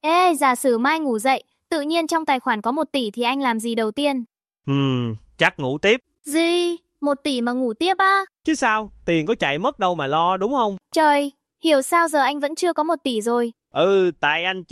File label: conversation